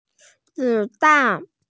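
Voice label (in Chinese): "zi3dan4", Mandarin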